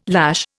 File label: "lash", Indian